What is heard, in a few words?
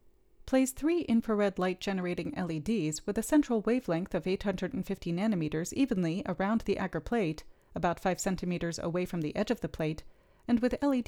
Gong Voiceover